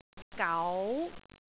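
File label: "gau2", Cantonese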